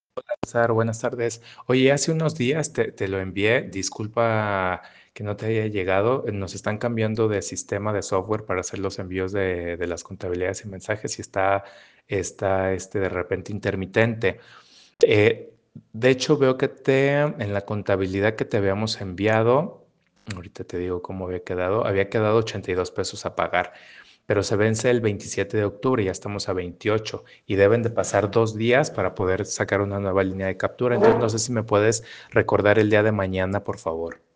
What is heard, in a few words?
whatsapp ptt